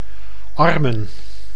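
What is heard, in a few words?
"armen", Dutch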